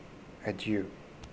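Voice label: "adieu", Canadian, male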